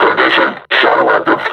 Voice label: reconnoiter search, vo combine suppressor